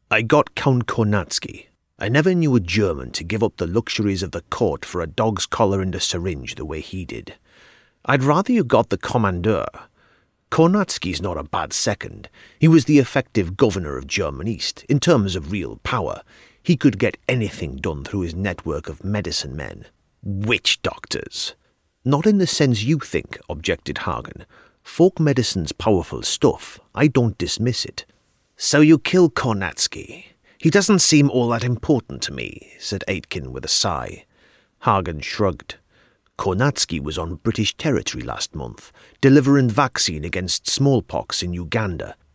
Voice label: geordie